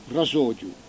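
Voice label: "rasoghju", Corsican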